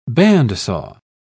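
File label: "bandsaw", British English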